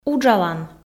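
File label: "уджалан", Komi, female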